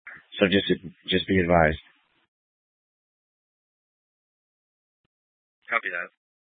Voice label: Fire Departments Mesa Simulcast; C3 Mesa; TOPAZ